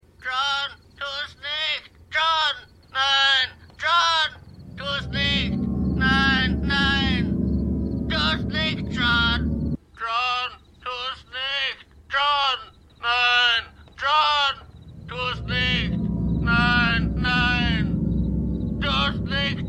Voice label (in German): Papagei, Stimmproben; Wolfie; X Factor